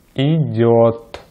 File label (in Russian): "идёт", Russian